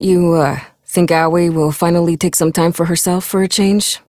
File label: Ambient Barks, en; Warframe; Mall Romance; Lettie